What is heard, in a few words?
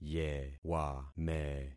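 "yewame", concatenated syllables; Affixing experiment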